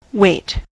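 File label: "wait", US English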